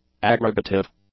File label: "aggregative", English; adjectives